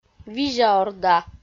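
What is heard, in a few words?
"визяорда", Komi, female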